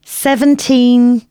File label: announcements female